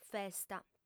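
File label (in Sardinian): "festa", Sardinian, female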